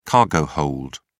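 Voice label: "cargo hold", British English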